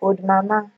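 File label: "old mama", Nigerian Pidgin, female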